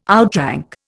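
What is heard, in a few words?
"outrank", Indian English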